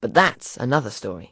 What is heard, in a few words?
English Voice